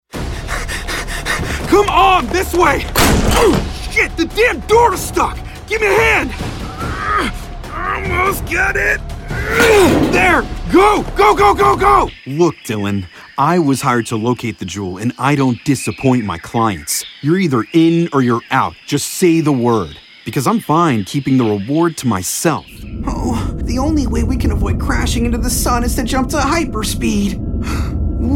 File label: men video games; Authentic and friendly millennial. Confident and versatile.